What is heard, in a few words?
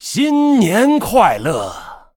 Male Troll HAPPY NEW YEAR, zh